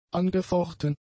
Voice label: "angefochten", German; adjectives